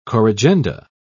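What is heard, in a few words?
"corrigenda", British English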